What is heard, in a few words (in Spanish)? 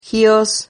"gios", Silabas